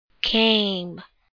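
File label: "came", English word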